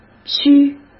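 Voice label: "虚", Mandarin Chinese; chinese-flashcards; hsk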